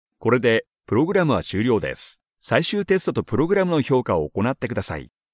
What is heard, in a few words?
elearning